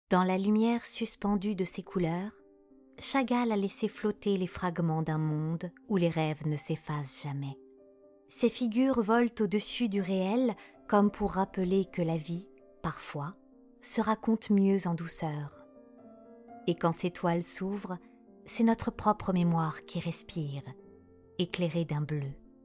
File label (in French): Documentaire; voice-talent; Chagall